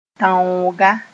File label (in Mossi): "tãooga", moore; kind of trap